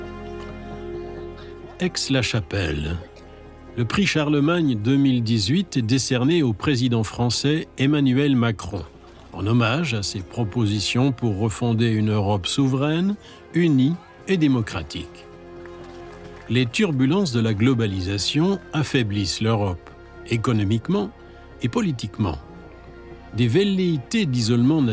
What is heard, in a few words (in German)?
Auszug DOKU FR KOMM